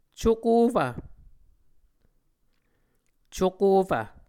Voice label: "cukofv", Muscogee

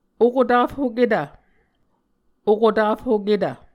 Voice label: "okotafhoketv", Muscogee

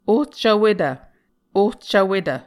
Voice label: "ohcvwetv", Muscogee

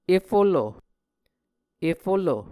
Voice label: "efolo", muscogee

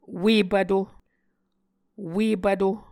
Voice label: "ue pvto", Muscogee

